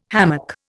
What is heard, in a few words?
"hammock", Indian